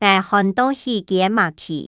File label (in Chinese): 𠊎寒到起雞嫲皮, 客語